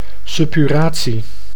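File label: "suppuratie", Dutch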